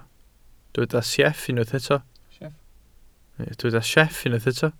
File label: "sieff", Welsh